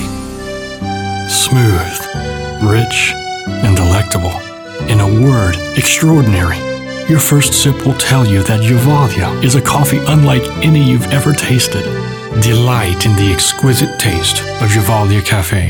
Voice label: Luxury smooth